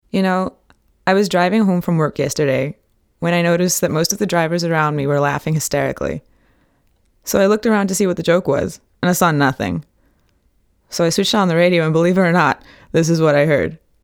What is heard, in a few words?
chatty american accent